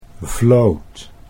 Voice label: "vloot", Dutch